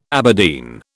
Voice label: "Aberdeen", British